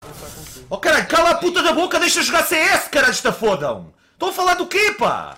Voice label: rage